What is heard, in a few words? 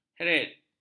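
"heret", Malay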